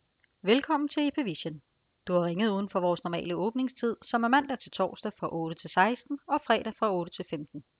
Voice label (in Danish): kvinde; Ipvision Demo